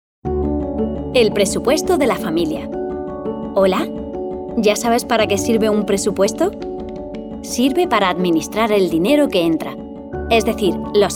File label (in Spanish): E learning